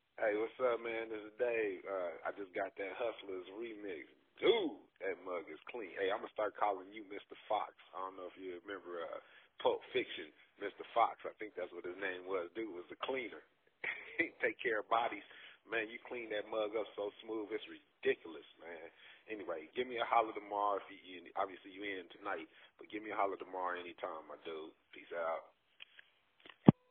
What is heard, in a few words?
voicemail